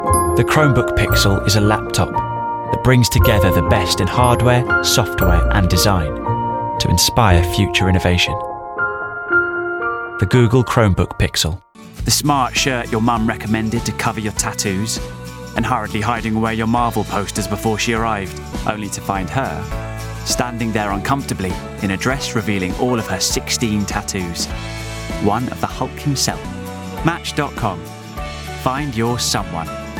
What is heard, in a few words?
commercial